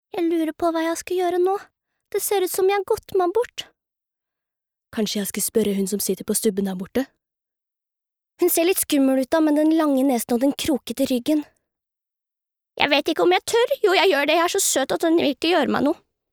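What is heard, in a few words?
Norwegian dub character; norsk; kvinne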